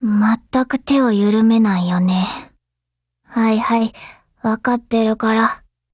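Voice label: operation begin, Japanese; HK21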